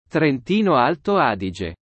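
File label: "Trentino Alto Adige", Italian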